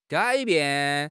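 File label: "gai3 bian4", Mandarin Chinese; HSK; HSK4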